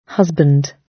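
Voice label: "husband", British English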